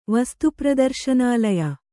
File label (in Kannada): "ವಸ್ತುಪ್ರದರ್ಶನಾಲಯ", Kannada